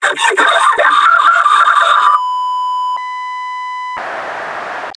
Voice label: fire scream, metropolice; npc